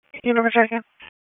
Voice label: Police Northeast District Disp, p25; wake p25